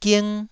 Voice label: "ging1", Taiwanese syllable